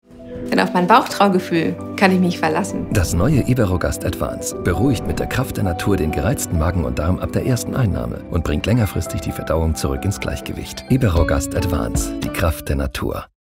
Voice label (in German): DE Werbung; Iberogast